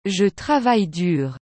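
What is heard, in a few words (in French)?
"Je travaille dur", French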